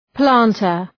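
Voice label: "planter", English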